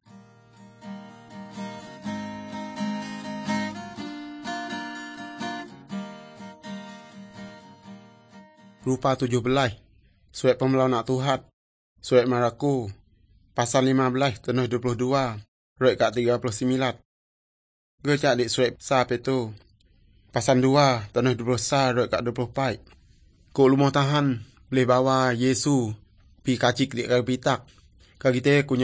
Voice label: good news, urak lawoi

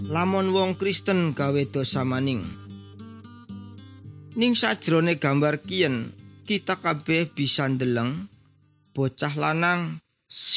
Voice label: good news jawa indramayu, javanese bible

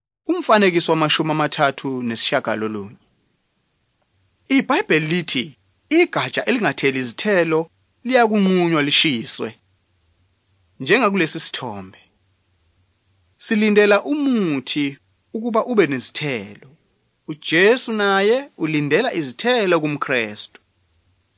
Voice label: zulu bible; good news

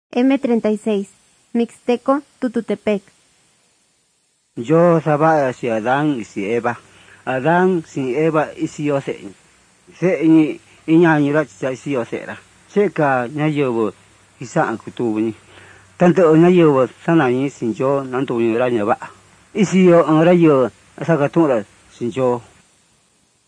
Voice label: mixtec diagnostic spanish mexico

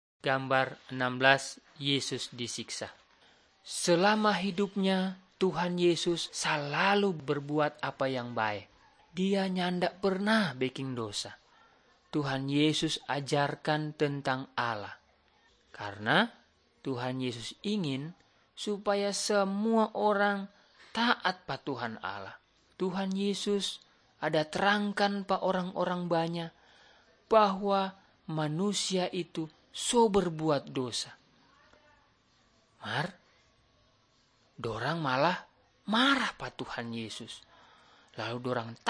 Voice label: malay manado; good news

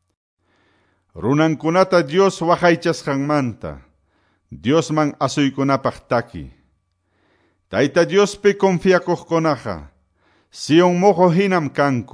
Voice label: salmos, quechua ayacucho

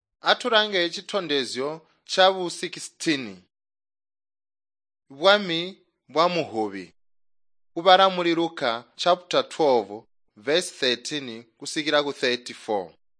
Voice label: tonga plateau, drama; chitonga bsz nt drama

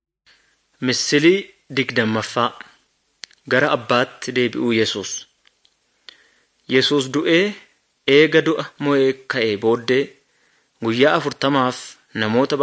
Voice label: good news oromo wellega

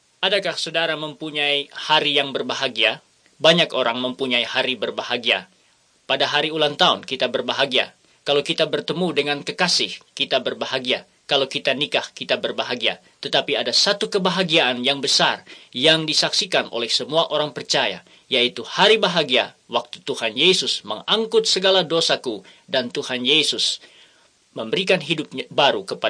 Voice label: bahasa indonesia; words of life 1

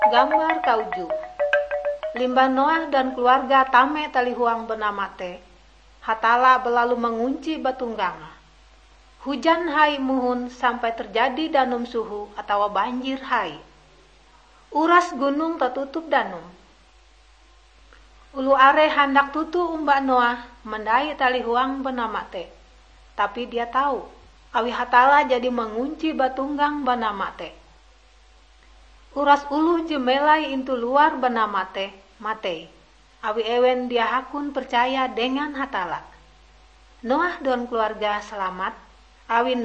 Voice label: kabar bahalap good news ngaju, drama

